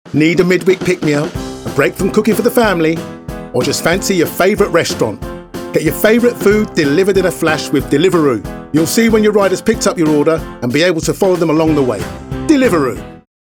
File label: Food commercial